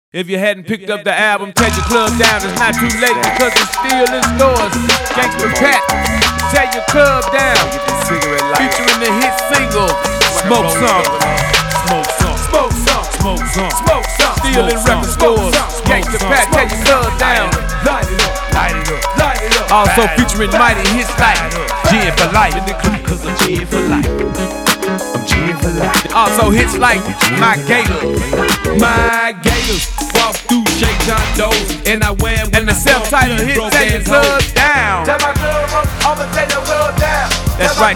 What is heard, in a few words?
Commercial